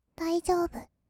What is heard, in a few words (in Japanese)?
"だいじょーぶ！", kawaii voice; 1200 kawaii voice!!; 色々なワンショット